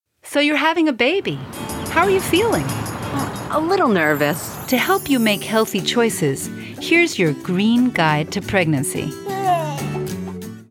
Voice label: English Green Guide To Pregnancy; English - Medical Narration